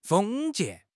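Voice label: "封建", Mandarin Chinese; HSK; HSK6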